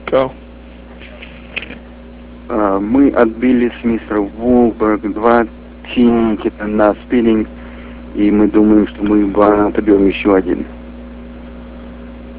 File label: russian